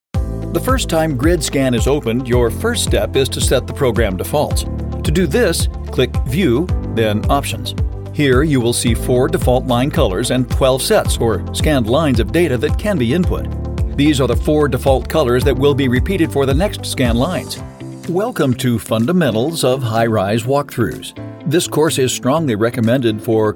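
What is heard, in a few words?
US English Elearning; voice demos; GoLocalise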